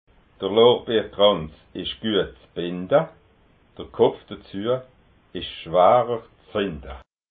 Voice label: "lordbeerkranz", alsace

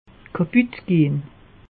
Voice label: "kaputt", alsace; meteo

